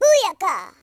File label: "first blood", Gangsta; speech